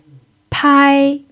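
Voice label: "pai" first tone, chinese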